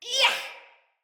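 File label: Attack Corrupted Sprouts, en; Dialog; Battle Barks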